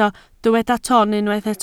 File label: "ton", Welsh